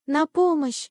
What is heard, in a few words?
help wom ru